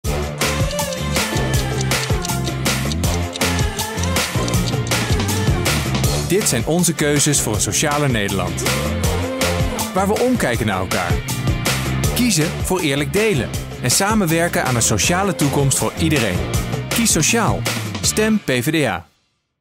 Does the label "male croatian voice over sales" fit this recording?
No